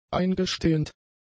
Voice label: "eingestehend", German; adjectives

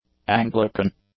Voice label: "Anglican", English; adjectives

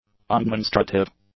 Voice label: "undemonstrative", English; adjectives

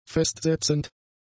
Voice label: "festsetzend", german; adjectives

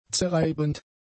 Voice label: "zerreibend", German; adjectives

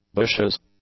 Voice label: "bushes", English; nouns

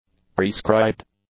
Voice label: "prescribed", english; adjectives

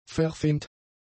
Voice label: "verfemt", German; adjectives

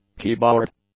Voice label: "keyboard", English; nouns

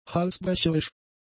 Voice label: "halsbrecherisch", German; adjectives

